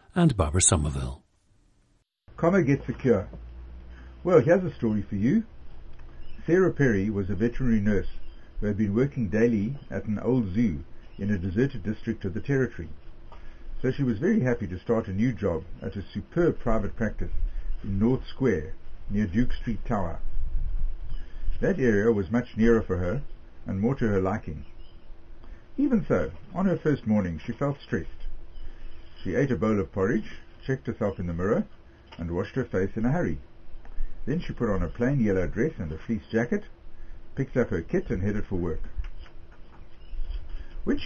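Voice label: south africa, dialects of English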